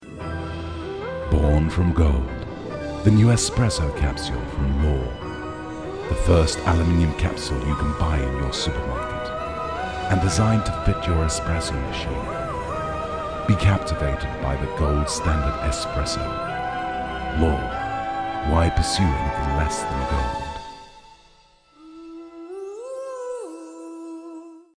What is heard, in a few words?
English Voice Over Epic ads